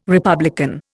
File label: "republican", Indian